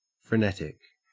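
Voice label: "frenetic", Australian English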